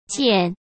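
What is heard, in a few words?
"键", Mandarin Chinese; chinese flashcards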